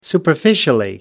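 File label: "superficially", English, uk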